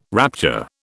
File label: "rapture", British